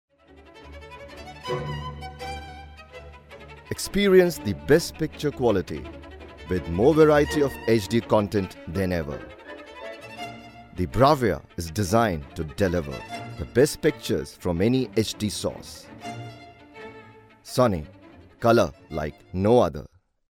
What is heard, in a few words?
AD English, male voice; SONY